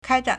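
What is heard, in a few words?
"kāizhǎn" Chinese; 开展; 開展; verb; to develop; unfold; to start; to launch; to open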